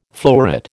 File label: "fleuret", American